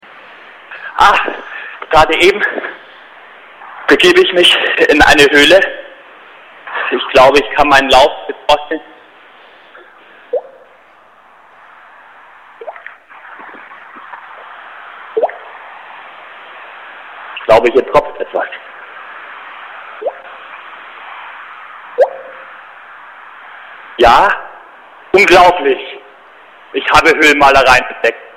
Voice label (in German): telefonat